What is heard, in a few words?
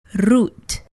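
"root", English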